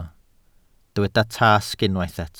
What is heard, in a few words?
"tasg", Welsh